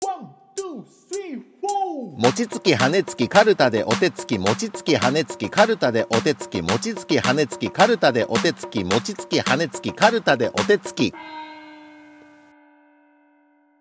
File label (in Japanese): 早口言葉リズム（中級編）